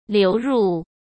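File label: "流入", Mandarin Chinese; hsk; chinese-flashcards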